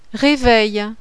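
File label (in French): "REVEIL", French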